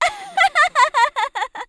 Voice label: voice Fun; Shua

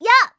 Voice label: lucy voice stroke

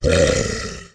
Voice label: voice stroke; Blood

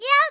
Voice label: voice stroke

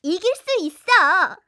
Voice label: yell duece

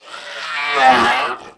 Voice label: Lizard voice Player Down

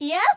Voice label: Goddess voice skill

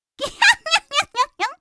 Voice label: voice Fun; Pochi

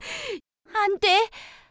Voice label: Shua voice Angry

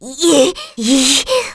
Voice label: voice Angry

